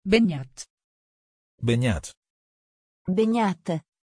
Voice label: pronunciation Beñat, Italienisch